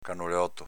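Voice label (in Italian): "canolòto" Veneto dialect; s.m. ricciolo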